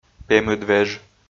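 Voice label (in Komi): "пемыдвеж", Komi, male; audio dict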